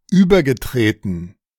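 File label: "übergetreten", German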